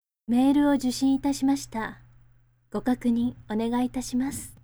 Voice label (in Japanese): mail woman